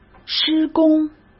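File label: "施工", Mandarin Chinese; chinese-flashcards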